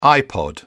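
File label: "ipod", English, British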